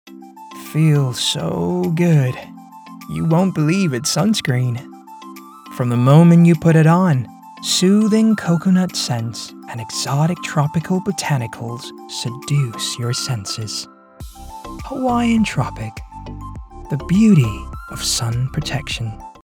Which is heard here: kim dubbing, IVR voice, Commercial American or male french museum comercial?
Commercial American